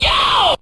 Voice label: yo SCREAM; Voice; ooh ahh